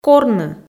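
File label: "корны", Komi, female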